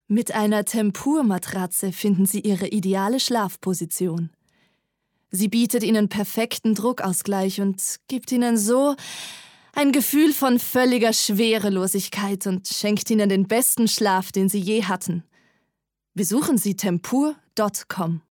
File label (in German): Matratze, Hörfunkspot; TEMPUR; Hörfunkspot "TEMPUR" (zu Demozwecken aufgenommen), 2013